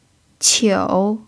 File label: "qiu3", mandarin pinyin